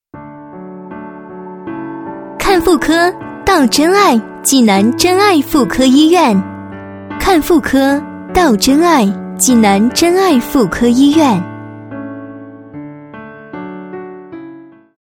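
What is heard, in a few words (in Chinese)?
女7-广告-看妇科（青春温柔）; 真爱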